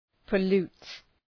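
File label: "pollute", English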